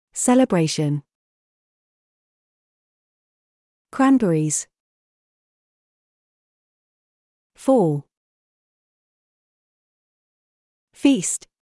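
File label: British; thanksgiving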